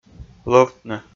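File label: "лӧвтны", Komi, male; kpv audio dict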